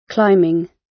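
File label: "climbing", British English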